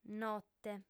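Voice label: "note", female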